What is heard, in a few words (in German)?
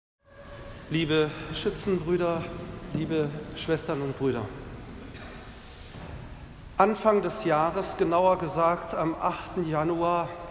Predigt zur Glockenweihe